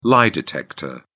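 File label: "lie-detector", British English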